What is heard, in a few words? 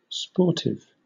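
"sportive", British English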